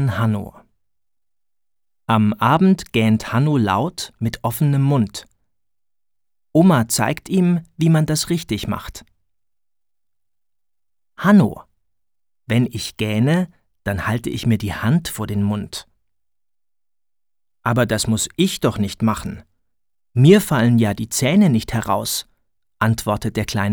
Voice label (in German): Da lachen ja die Huehner vorgelesen; 200 Diktate; zweite Klasse